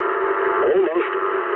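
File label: English bomb failure mood low; speech; War Thunder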